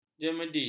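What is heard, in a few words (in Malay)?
"jemedi", Malay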